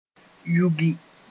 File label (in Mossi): "yugi", moore